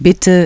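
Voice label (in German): "Bitte", German; DISTEFORA Navigation; Mobile Navigator Notebook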